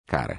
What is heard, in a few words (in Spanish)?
cara en portugues